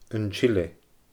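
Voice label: "An t-Sile", Scottish Gaelic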